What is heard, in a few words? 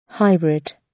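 "hybrid", British English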